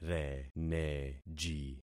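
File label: "reneji", concatenated syllables; Affixing experiment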